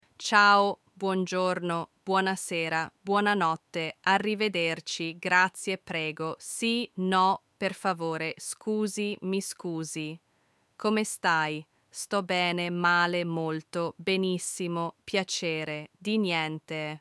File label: italian words with pronunciation